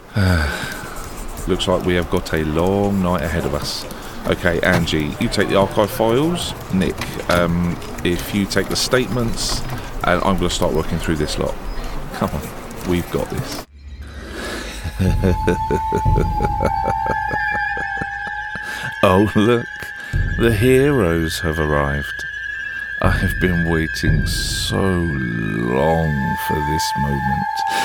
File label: Character Demo